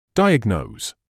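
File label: "diagnose", British English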